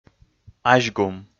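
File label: "азьгум", Komi, male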